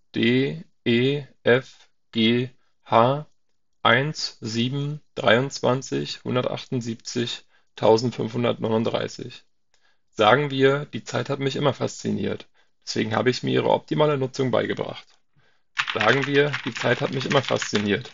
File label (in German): Mikrofontest Sennheiser EPOS ADAPT 660 via Creative BT W3